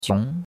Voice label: "jiong2", chinois; Cours-de-Chinois

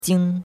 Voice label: "jing1", chinois; Cours-de-Chinois

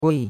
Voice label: "gui4", chinois; Cours de Chinois

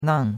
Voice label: "nang4", chinois; Cours-de-Chinois

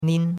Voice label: "nin1", chinois; Cours de Chinois

